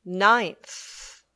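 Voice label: "ninth", English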